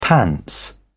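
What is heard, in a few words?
"pants", British English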